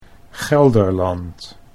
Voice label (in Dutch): "Gelderland", Dutch